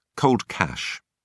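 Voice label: "cold cash", British English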